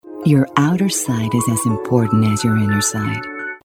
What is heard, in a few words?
Cool sensual English